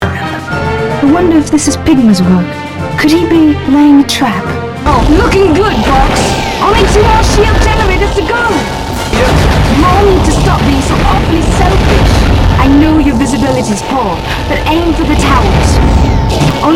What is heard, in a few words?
English; Assault; Fichina